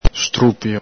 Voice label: "strupio", Veneto